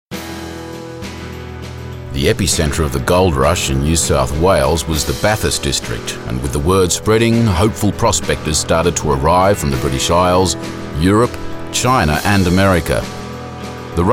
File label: australian documentary, voicereel